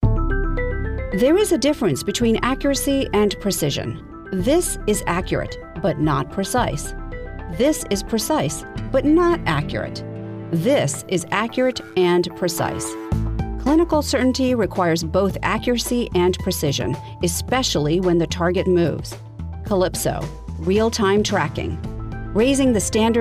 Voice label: english us and canada female generic